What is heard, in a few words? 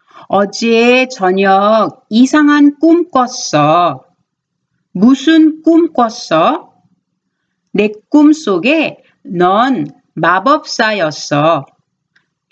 Conversation